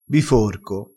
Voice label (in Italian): "biforco", vocabolario dialettale